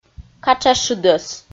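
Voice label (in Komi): "катшашыдӧс", Komi, female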